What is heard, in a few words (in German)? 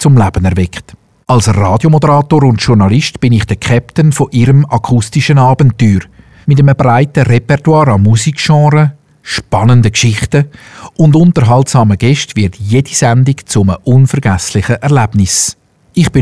stimme schweizerdeutsch